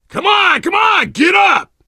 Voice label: revive friend loud, player survivor voice biker